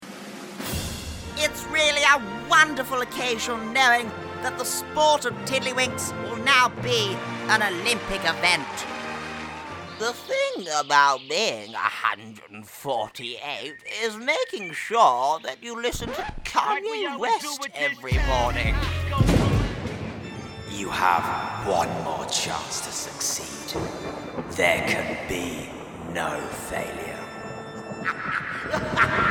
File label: Character Show Reel